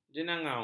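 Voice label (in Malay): "jenangau", Malay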